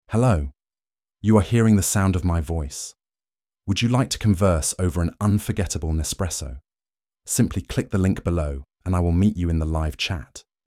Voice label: Stimmprofil EN maennlich, My voice expresses my personality as well.; I am inspiring indulgence and sophistication; Nespresso